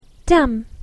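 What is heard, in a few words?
"dam", English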